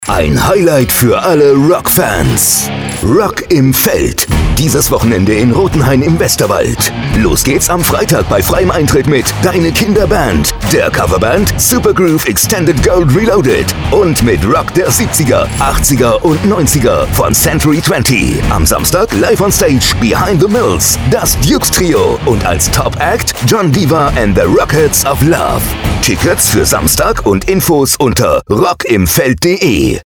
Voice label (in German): Funkspot; purKultur; Rotenhain; Rock im Feld